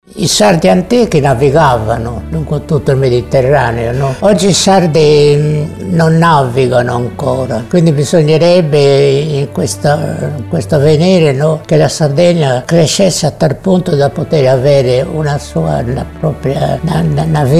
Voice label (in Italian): spot; RADIOLINA